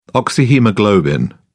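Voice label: "oxyhaemoglobin", British English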